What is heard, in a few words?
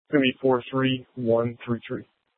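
Sheriff, Parks, Sheriff radio scanner; Shrf Mnt (Parks)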